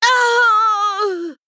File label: Female Worgen Death